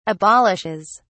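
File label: "abolishes", British English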